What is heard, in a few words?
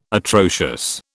"atrocious", British